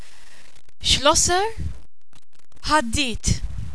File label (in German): schlosser, Maltesisch; berufe